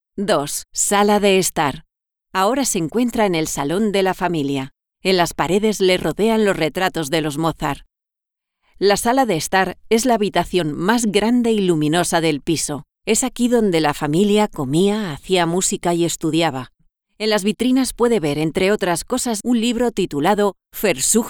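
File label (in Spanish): otros España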